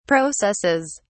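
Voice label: "processes", English, British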